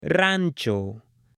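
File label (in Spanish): "rancho", Spanish, male; LUGARES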